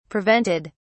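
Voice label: "prevented", British English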